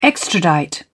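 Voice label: "extradite", British English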